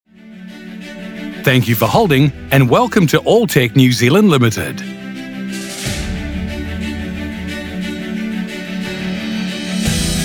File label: NZ PHONE